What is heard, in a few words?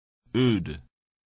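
"oud", English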